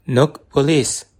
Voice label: police, bonggi